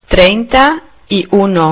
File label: "treinta", spanisch